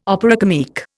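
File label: "opera comique", Indian English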